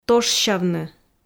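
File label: "тошсявны", Komi, female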